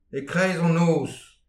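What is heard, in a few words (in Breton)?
"e kreiz an noz", Breton; petit precis de prononciation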